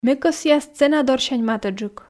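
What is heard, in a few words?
Komi, female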